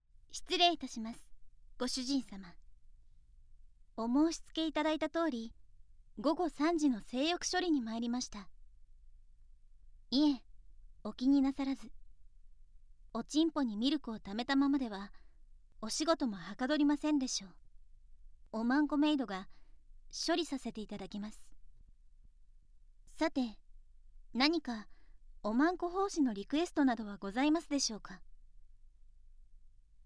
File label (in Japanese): 耳舐め ＆ 淫語囁き; 奉仕『お耳に、淫語囁きと耳舐めを交互にいたします』; ＳＥ無し